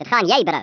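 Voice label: "you'll regret that", Afrikaans accent; Speech; International; Africaan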